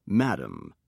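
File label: "madame", British English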